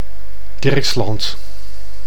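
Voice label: "Dirksland", Dutch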